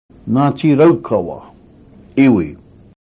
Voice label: "ngati raukawa", Maori